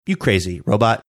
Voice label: you crazy robot robotic manner

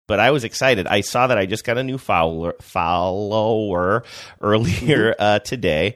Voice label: stumbled over word; follower

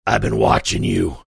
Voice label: gruff

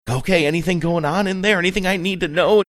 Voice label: funny

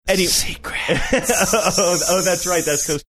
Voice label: whispering drawn out secrets

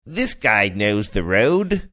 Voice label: saying this guy knows the road australian

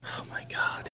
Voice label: whispering oh my god

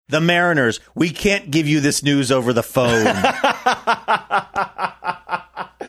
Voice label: sports radio promo voice; the mariners we cant give you this news

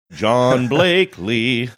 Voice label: sing songy manner

